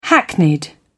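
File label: "hackneyed", British English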